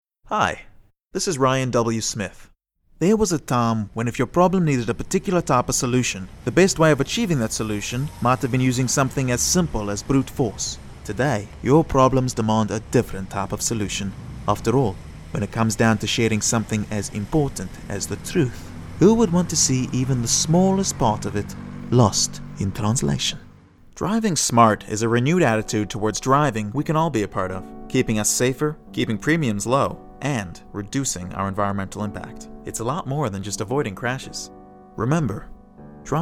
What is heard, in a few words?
commercial